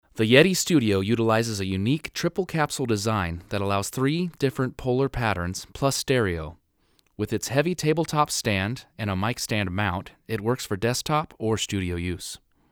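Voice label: Vocal, Blue Yeti Cardioid; usb mic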